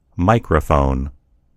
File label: "microphone", en US; gcompris